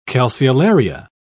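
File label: "calceolaria", English, British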